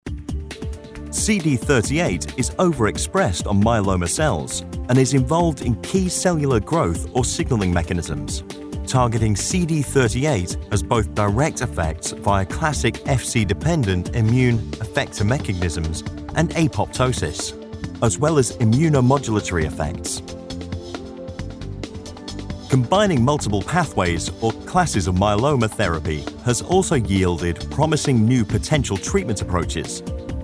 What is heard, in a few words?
Technical Conversational Style